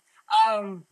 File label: Voice Acting, es, F0